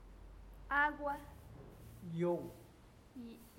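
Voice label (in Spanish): "agua", Huave, male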